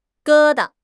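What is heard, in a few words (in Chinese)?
"疙瘩", Chinese; HSK6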